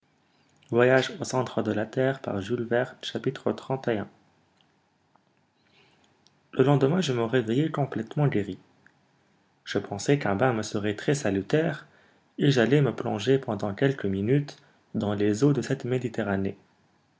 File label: male, French; mls samples; FrenchAnonymizer